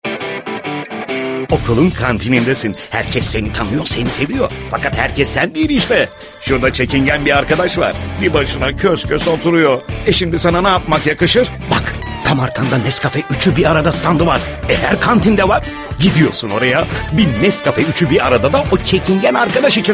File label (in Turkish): emotion neseli